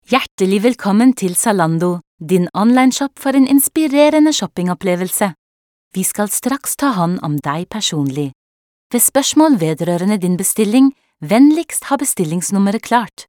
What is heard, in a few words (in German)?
norwegisch telefon; sprecher